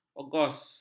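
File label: "ogos", Malay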